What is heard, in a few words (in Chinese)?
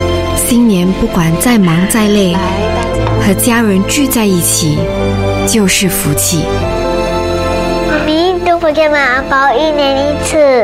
Mandarin China; Mcdonalds